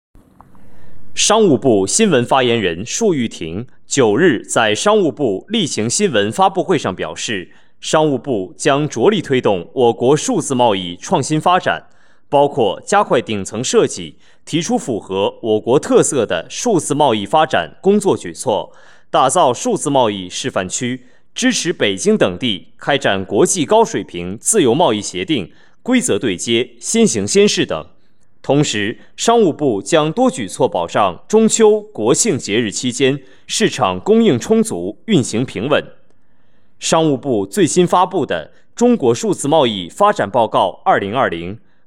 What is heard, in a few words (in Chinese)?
新闻